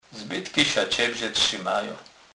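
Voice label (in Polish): "zbytky", Kurpie dialect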